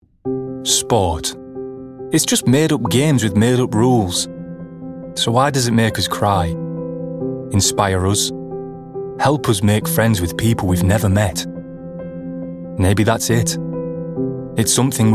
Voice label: Commercial Reel